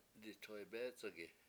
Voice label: I choke, Washo; ditoybEEc71gi